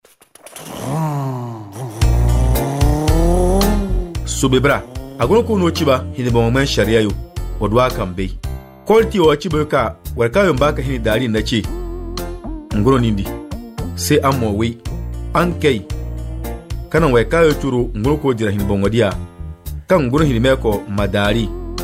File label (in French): CODE DE LA ROUTE DENDI